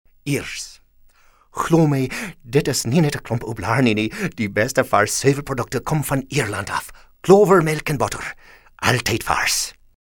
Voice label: Afrikaans Irish

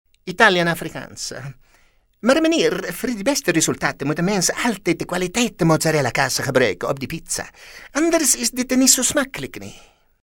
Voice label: AFRIKAANS ITALIAN

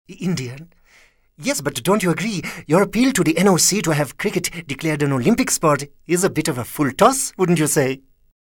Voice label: INDIAN

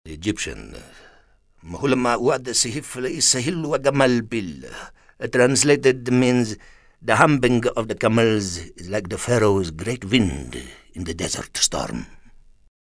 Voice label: ARAB EGYPTIAN

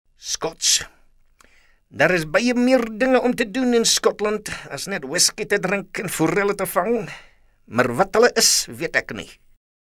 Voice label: Afrikaans Scots

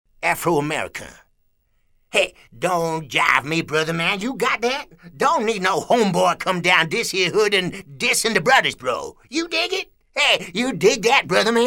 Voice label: AFRO-AMERICAN

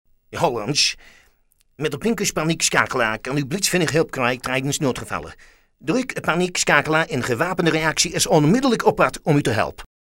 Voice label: AFRIKAANS DUTCH

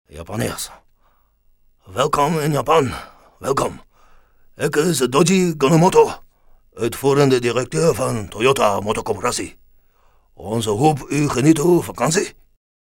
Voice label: Afrikaans Japanese

